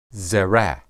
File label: "zera", Hebrew